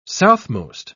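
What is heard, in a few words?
"southmost", English, British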